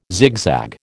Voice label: "zigzag", American